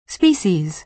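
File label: "species", American English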